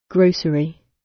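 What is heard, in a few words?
"grocery", British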